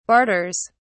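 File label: "barters", British English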